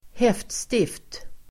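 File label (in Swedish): "häftstift", Swedish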